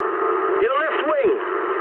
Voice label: eng left wing mood med, speech eng; War Thunder

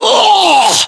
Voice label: death, voiceovers english

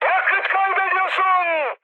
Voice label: tank damaged, mood high, speech tur; War Thunder

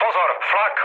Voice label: damaged aa, speech cze, mood low; War Thunder

